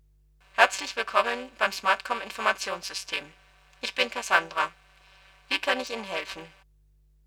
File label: Saw slow; SmartKom; Prototypen Stimme